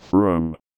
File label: VOICEFX ELCTR 140; Techno2